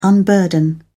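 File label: "unburden", British English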